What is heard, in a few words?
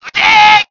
"Fire!" Japanese; BF1942